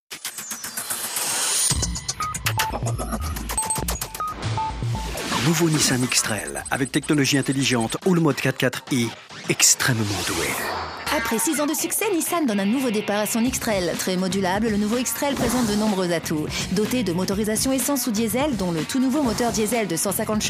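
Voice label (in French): fluide sport rapide, voix off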